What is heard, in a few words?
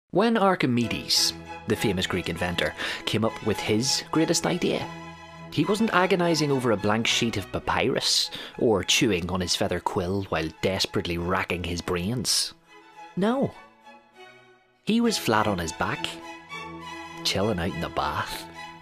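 Commercial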